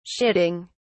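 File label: "shitting", British English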